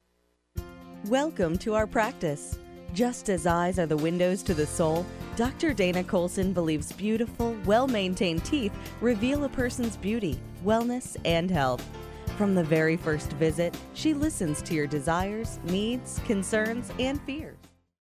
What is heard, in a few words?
On-Hold